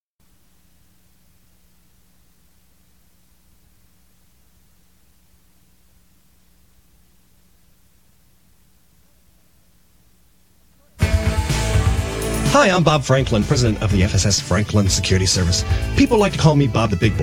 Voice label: Radio Commercial